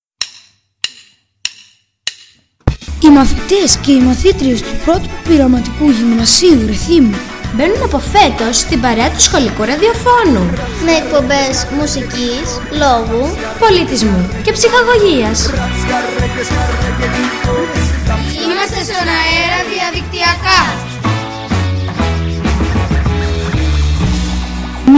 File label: School Radio Spot